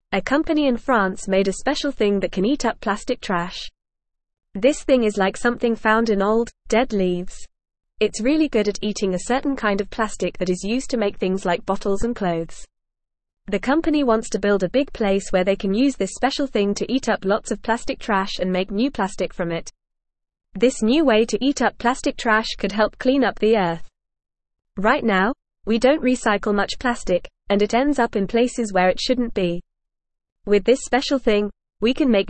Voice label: English Lower Intermediate FAST Reading; Amazing Plastic Eating Thing Helps Make New Plastic

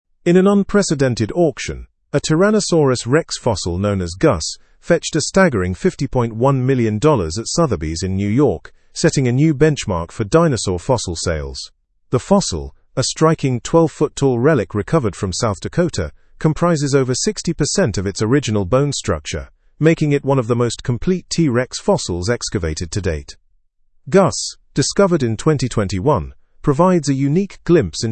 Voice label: learn english through news advanced; historic auction sees t rex fossil priced at 50 1 million

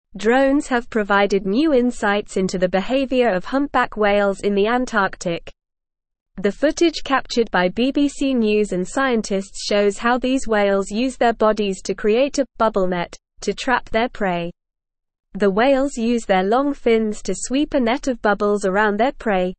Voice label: English Newsroom Advanced NORMAL Reading; Drones Capture Acrobatic Whales and Aid Conservation Effort

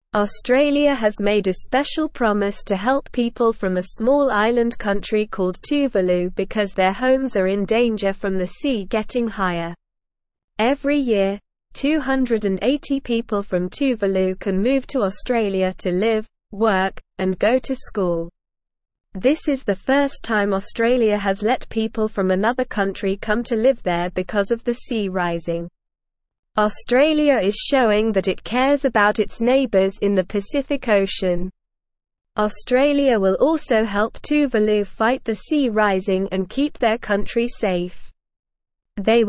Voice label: Lower Intermediate SLOW Reading, English; English Newsroom; Australia Helps Tuvalu as Water Rises Offers Suppor

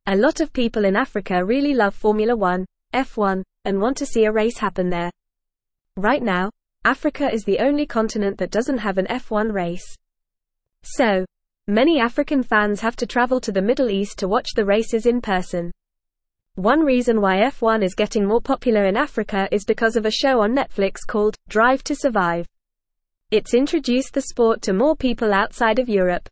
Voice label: English FAST Reading; Africas F1 Fans Demand Race on Continent

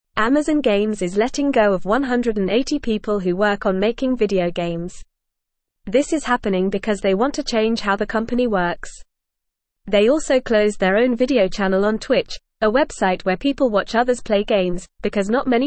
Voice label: English Newsroom Lower Intermediate FAST Reading; Big Game Company Says Goodbye to Many Workers

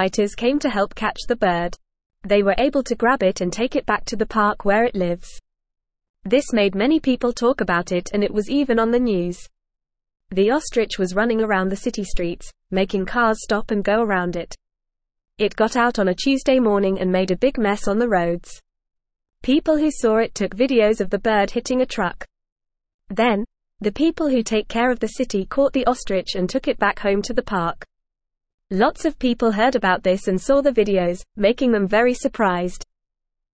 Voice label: English Beginner FAST Reading; English Newsroom; Ostrich Runs Loose in City Causes Chaos

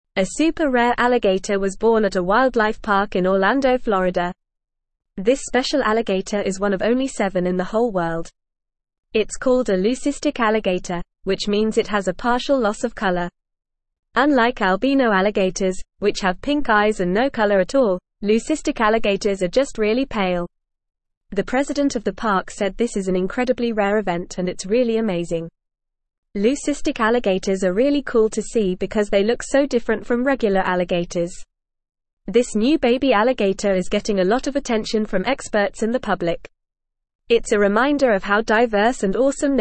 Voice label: English Newsroom Upper Intermediate FAST Reading; Rare White Alligator Born at Orlando Wildlife Park

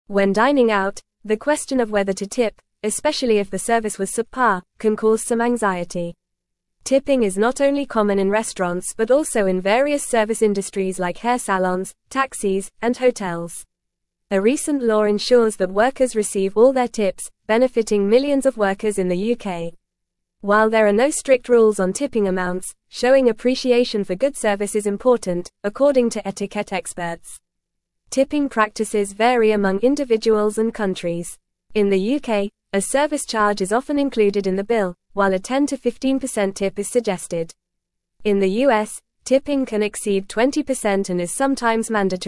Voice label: English Advanced FAST Reading

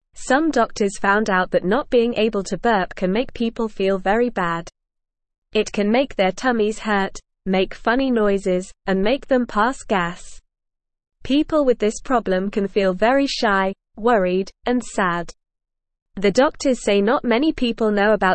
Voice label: English Beginner NORMAL Reading; English Newsroom

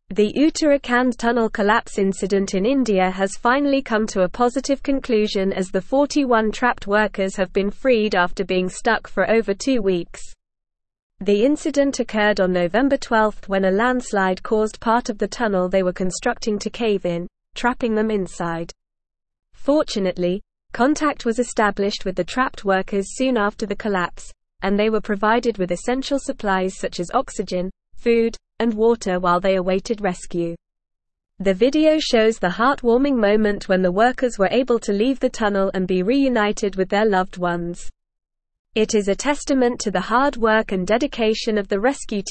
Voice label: English Advanced NORMAL Reading; English Newsroom; 41 Indian Workers Rescued After Being Trapped in Tunnel

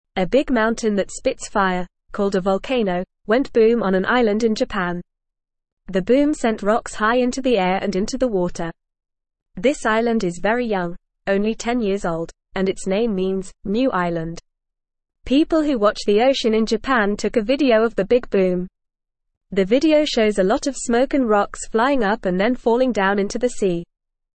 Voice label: English Beginner FAST Reading; Big Boom Volcano on New Island in Japan; English Newsroom

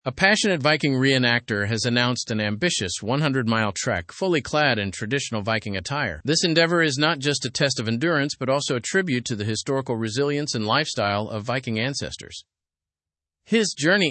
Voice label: english news advanced; viking re enactor embarks on historical 100 mile trek

